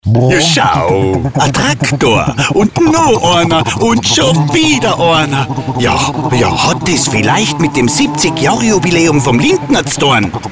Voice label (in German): Radiospot; Lindner; Jubiläumsfahrt